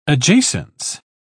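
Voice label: "adjacence", British English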